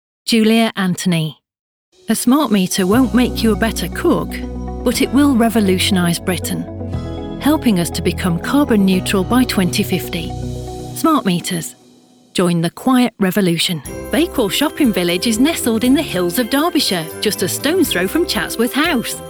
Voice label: commercial